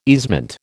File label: "easement", American